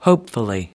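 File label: "hopefully", American English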